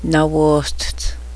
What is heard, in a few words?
my dress, cheyenne